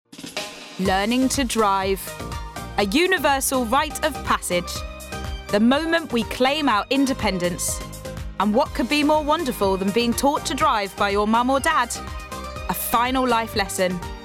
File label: Documentary